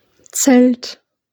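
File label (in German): "Zelt", German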